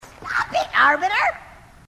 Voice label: grunt; h2dialogue; grunt crazy